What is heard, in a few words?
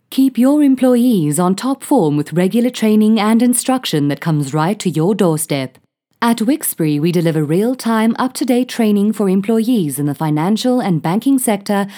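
Voice English South African Corporate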